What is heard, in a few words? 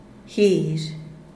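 "guizh", Zapotec, Díaz Ordaz; zacate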